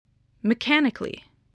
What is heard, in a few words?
"mechanically", spelling voice